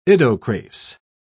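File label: "idocrase", English, British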